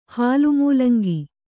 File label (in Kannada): "ಹಾಲುಮೂಲಂಗಿ", Kannada